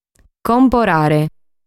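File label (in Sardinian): "Comporare", Sardinian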